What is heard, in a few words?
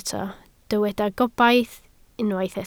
"gobaith", Welsh